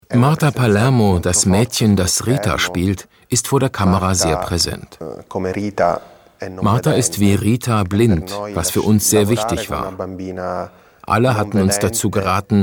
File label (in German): DE VO, Stimmproben; Arte Kurzschluss